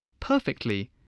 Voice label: "perfectly", English, voice

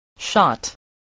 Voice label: "shot", voice spelling

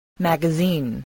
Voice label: "magazine", spelling voice

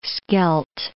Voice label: "Scheldt", English

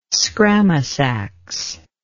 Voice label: "scramasax", English

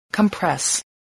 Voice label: "compress", english

